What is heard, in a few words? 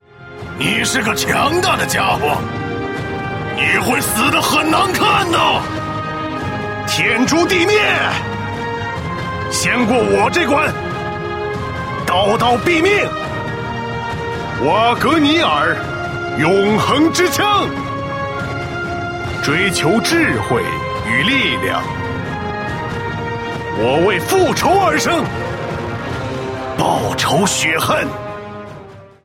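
burly uncle, male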